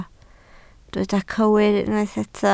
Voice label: "cywir", Welsh